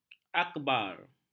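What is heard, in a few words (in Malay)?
"akbar", Malay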